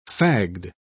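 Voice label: "fagged", British English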